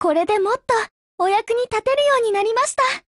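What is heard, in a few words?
COMBINE, Japanese; CAR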